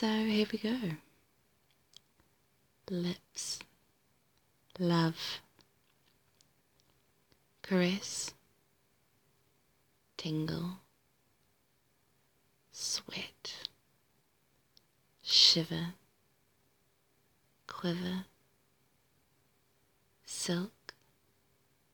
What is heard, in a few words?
Romantic; F4A; Romantic Words Challenge